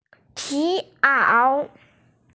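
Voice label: "piao", Mandarin Chinese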